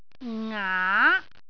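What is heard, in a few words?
"ngaa2", Cantonese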